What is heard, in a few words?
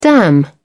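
"dam", British English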